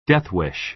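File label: "death wish", American English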